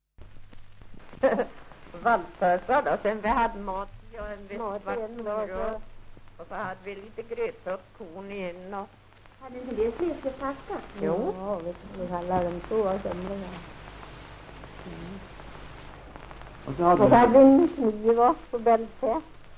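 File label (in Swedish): Österfärnebo Gästrikland, dialekter; Folkminnen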